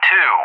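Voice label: "2", English; JTAC; Digits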